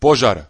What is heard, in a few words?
fire, croatian